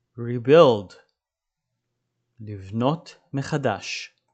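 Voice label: "rebuild" English, "לבנות מחדש" Hebrew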